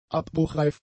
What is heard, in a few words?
"abbruchreif", German; adjectives